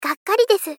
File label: Polite Dislike "Gakkari desu"